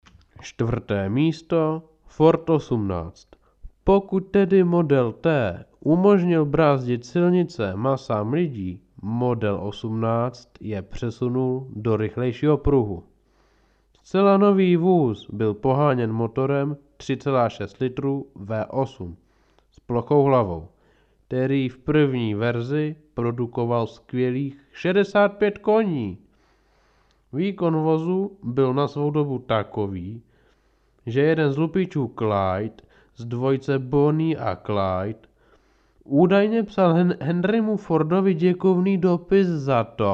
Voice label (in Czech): profesionalni muzsky hlas